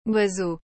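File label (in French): "oiseau", French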